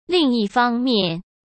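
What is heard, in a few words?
"另一方面", Mandarin Chinese; chinese-flashcards; hsk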